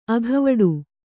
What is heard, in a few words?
"ಅಗವಡು", Kannada